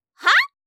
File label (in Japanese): "はぁ！？" 相づち・反応系 ネガティブ